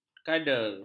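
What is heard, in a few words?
"kader", Malay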